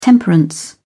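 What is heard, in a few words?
"temperance", British English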